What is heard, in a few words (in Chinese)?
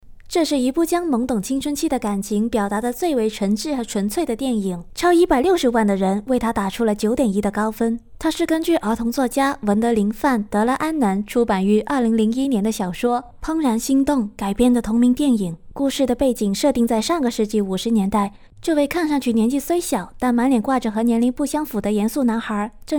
影视 解说